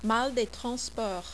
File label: "mal des transports", French